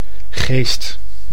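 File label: "geest", Dutch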